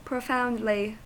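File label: "profoundly", US English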